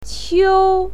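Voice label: "qiu1", Mandarin Chinese pinyin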